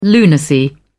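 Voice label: "lunacy", British English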